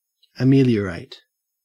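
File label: "ameliorate", Australian English; pronunciations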